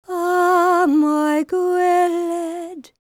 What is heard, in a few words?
WELSH F, World Voices